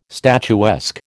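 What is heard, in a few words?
"statuesque", American English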